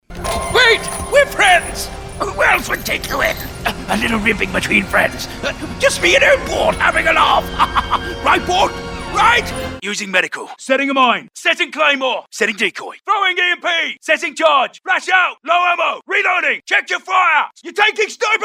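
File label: animation men video game, Voice Over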